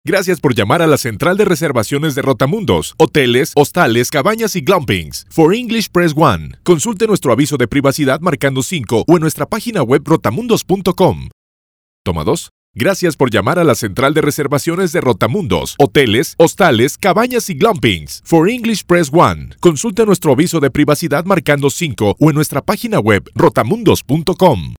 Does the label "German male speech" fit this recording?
No